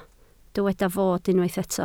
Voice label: "fod", Welsh

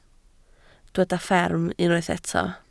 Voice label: "fferm", Welsh

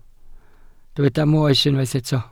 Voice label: "moes" Welsh

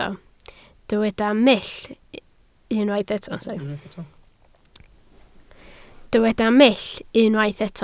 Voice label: "mull" Welsh

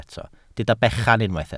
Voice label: "bechan", Welsh

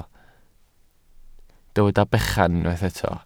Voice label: "bechan", Welsh

